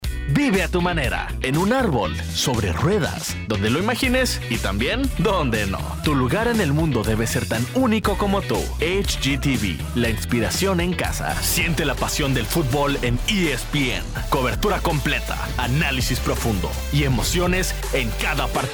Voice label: spanish latin american promos